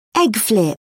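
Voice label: "egg flip", British English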